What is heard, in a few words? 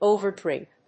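"overdrink", English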